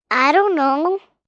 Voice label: Gurgle, Response, 1 girl, 5 yrs.